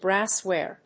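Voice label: "brassware", English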